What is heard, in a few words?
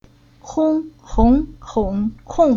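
"Hong", Chinese syllable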